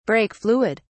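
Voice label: "brake fluid", British English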